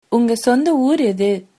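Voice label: where from, Tamil, female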